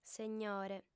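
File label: "segnore", Sardinian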